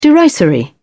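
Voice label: "derisory", English, British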